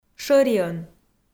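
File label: "шӧриӧн", Komi, female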